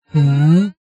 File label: computer voice female "hmm"